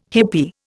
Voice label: "hippie", Indian

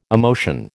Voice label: "emotion", American

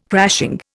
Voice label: "crashing", Indian

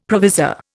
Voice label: "provisor", Indian; Dictionary

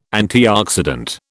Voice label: "antioxidant", British

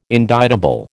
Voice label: "indictable", American

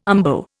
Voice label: "umbo", Indian

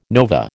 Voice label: "nova", American

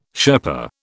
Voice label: "Sherpa", British

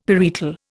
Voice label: "parietal", Indian; Dictionary

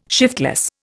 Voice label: "shiftless", Indian

